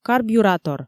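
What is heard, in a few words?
"карбюратор", Komi, female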